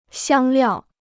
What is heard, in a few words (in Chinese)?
"香料", Chinese